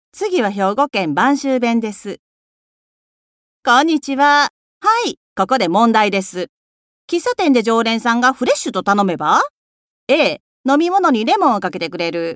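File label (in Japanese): 方言, 播州弁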